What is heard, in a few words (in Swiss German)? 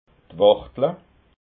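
"wachtla", Alsatian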